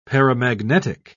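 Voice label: "paramagnetic", British English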